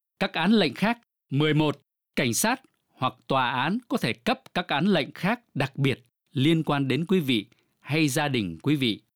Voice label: vietnamese